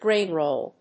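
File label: "grain roll", English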